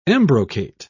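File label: "embrocate", British English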